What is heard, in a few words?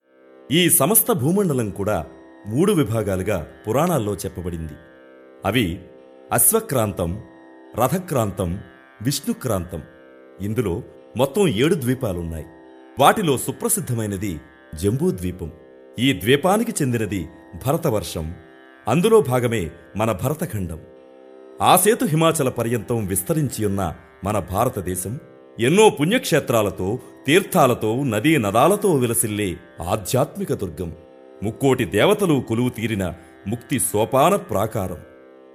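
narration spiritual telugu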